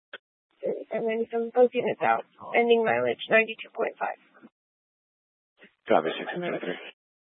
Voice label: Police Dispatch